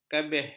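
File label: "kabeh", Malay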